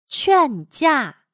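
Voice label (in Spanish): "劝架", chino mandarin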